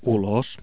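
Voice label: "ulos", Finnish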